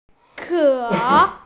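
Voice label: "ke3", Mandarin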